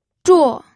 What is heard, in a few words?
"zhuo4", pinyin